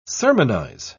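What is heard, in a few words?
"sermonise", British English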